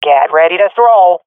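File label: request grenade, swat female; vo; Counter Strike Model Pack; CS:GO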